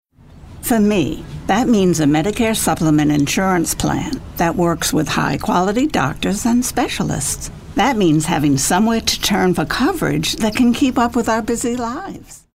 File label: Medicare Supplemental, friendly